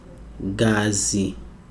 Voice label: "blood", Chikunda